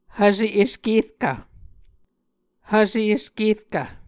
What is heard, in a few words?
"hvse eskerkv", Muscogee